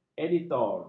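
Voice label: "editor", Malay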